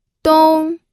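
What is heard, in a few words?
"dong", Mandarin Chinese, first tone; pinyin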